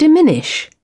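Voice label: "Diminish", British English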